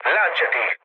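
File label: Italian bailout mood low, speech; War Thunder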